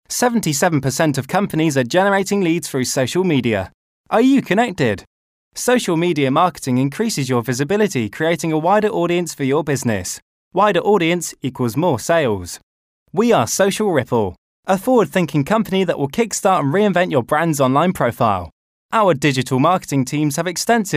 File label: natural corporate